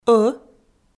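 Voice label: "ӧ", Komi, female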